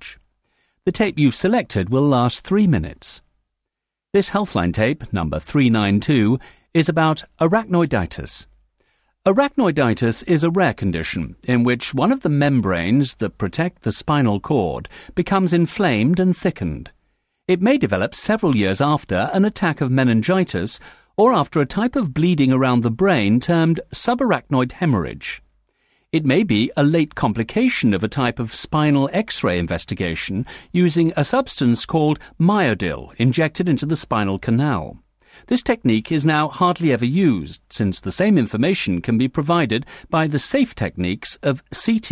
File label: Telephone Helpline